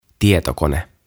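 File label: "Tietokone", "Computer", Finnish, English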